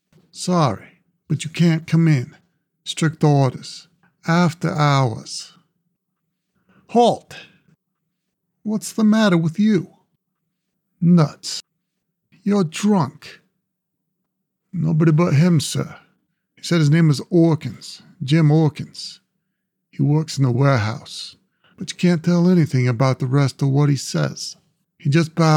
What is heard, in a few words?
Male - American Boston; "The Whispering Spheres"